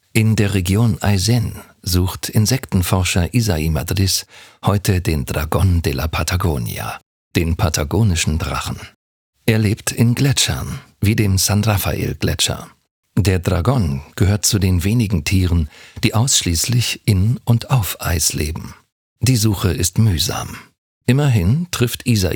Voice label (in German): Doku VO, DE; NDR Patagonien